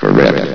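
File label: "correct", male synthesized voice; vox; source engine; hl1; video games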